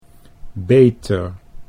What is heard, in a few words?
"beter", Dutch